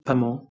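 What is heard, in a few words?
"prh2mo", Proto-Indo-European; eastern origins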